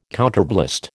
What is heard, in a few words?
"counterblast", American